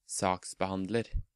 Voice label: "Saksbehandler", Norwegian